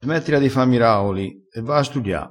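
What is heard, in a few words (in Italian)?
"fa miraoli", vocabolario dialettale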